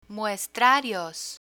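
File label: "muestrarios" Spanish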